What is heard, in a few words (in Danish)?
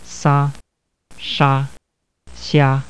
kinesisk, kinesisk [s̺á s̠̻á ɕá]